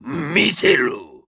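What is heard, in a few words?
story, Japanese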